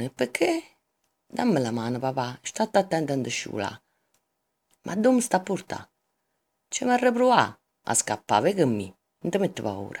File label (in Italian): Pinocchio Marsicano